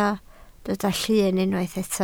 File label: "llyn", Welsh